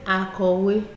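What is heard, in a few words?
"akowe", Nigerian Pidgin, female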